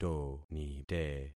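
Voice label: "tonite", concatenated syllables; Affixing experiment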